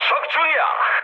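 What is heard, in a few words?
Korean player killed enemy mood med, speech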